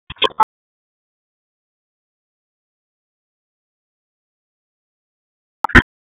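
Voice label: Police Southwest District Tac, radio; Raleigh; p25; wake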